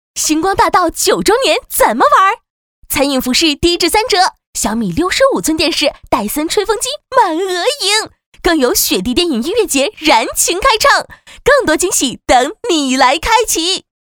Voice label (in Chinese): 女 欢快干音; 怎么玩